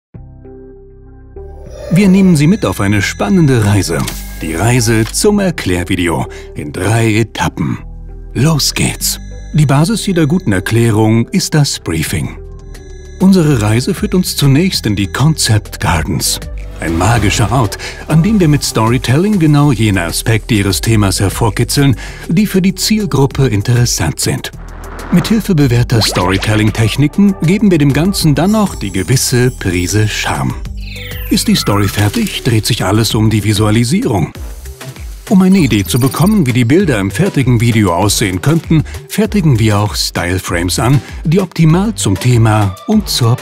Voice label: Erklaervideo How To

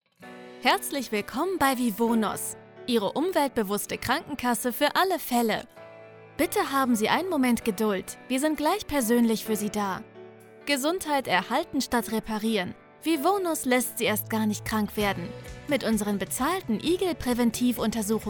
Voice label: Warteschleife

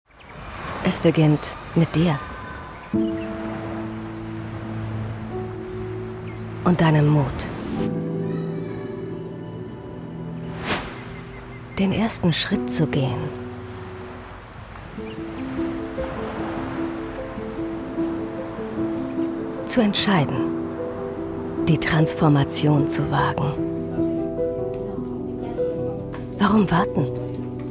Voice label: Imagefilm; SAP

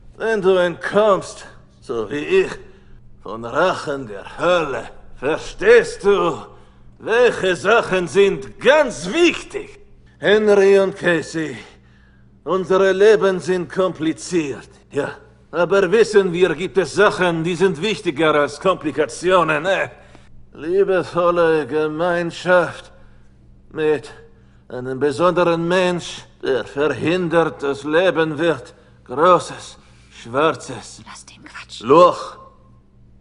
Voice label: Synchron Akzent, German; Lip-Sync (Synchron); Party Down - Synchron - Akzent

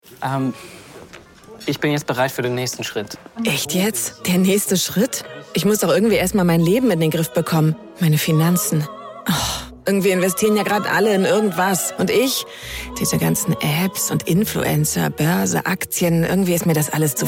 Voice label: Werbung Gedankenstimme, Commercial, German, Akzent: Russian; Deka

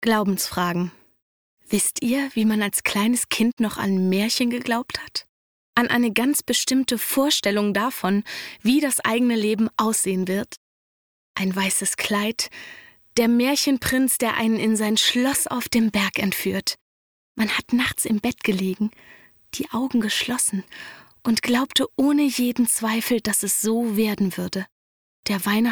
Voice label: sprecherdemo serie kommentar; glaubensfragen; greys anatomy

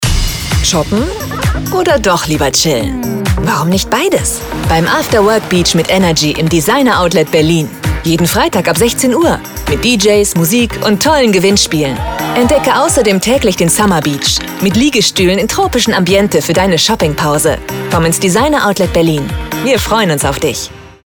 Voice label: Funkspot; Designer Outlet; After Work Beach

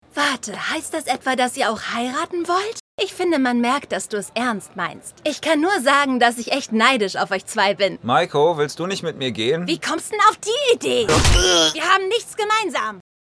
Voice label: Synchron Anime erst lieblich dann aggressiv

